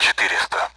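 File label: Speech Sound Russian Common AWACS Numbers; DCS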